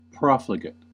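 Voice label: "profligate", US English, adjective noun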